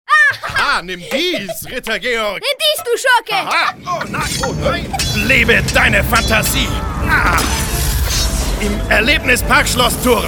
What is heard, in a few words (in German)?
SPRECHER WERBUNG Werbespot; Schloss Thurn